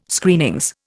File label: "Screenings", Indian